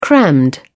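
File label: "crammed", British English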